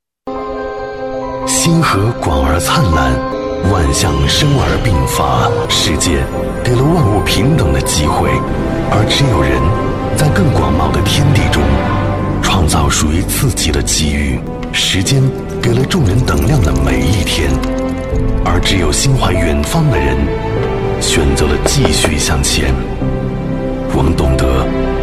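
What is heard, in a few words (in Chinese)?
国语 男声 纪录片 低沉 大气浑厚磁性 沉稳; 苗族古歌-磁性、舒缓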